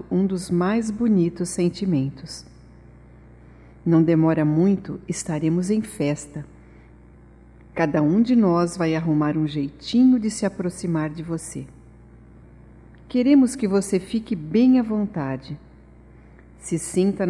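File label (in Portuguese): BOAS VINDAS (PARA PESSOA DESCONHECIDA), female, Telemensagens, Só Voz; Telemensagens 2017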